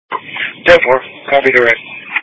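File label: Police Patrol Dispatch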